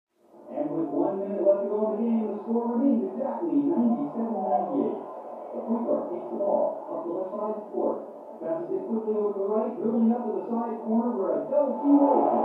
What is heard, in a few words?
Television; Basketball Game With Announcer, Crowd And Players. From Down Hallway.; sports